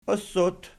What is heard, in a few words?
"ossot", Archi